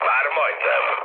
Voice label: near waypoint, mood low, speech hun; War Thunder

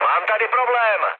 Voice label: aircraft damaged, speech cze, mood low

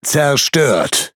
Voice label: Speech Pilots Bomber Destroy, DE; World Of Warships

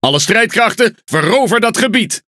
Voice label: NL Speech Quick Commands Enemy Point; World Of Warships

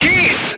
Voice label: voice message charged, crew tanks hungarian

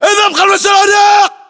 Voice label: order cover boxes, battle chatter, english

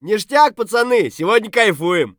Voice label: mutter; Escape from Tarkov

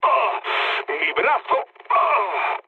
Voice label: damaged pilot mood low; speech; War Thunder

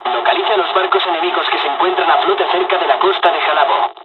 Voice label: attack, dialogs spanish; War Thunder

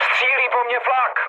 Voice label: damaged aa, speech cze, mood low; War Thunder

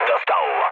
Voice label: aircraft destroyed mood low, speech pol

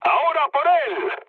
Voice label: attack position, mood low, speech; War Thunder

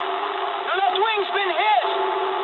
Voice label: eng left wing mood med, speech eng; War Thunder

